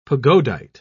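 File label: "pagodite", British English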